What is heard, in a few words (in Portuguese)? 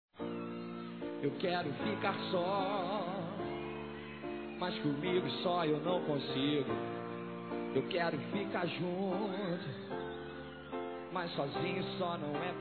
Recente Feminino; Dia Dos namorados; Telemensagens 2017